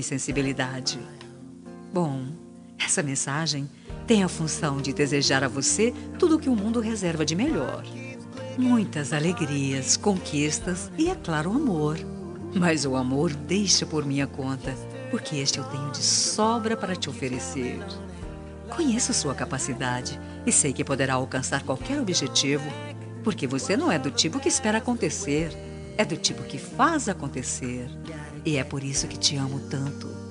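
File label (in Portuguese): ano novo romantica feminina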